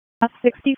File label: EMS ALERT; wake